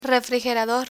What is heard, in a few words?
"refrigerador", Spanish; kitchen vocabulary